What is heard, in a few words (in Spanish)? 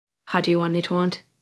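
Voice Acting es F, Spanish, female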